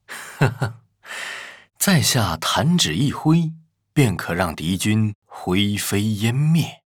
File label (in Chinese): 儒雅随和